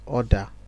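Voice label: "order", Nigerian Pidgin, male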